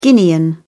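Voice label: "guinean", British English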